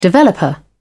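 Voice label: "developer", British English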